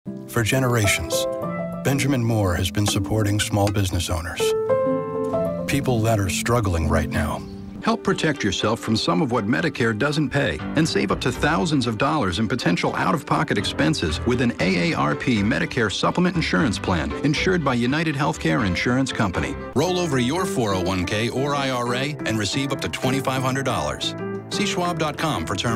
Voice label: Commercial; 2021 Commercial Demo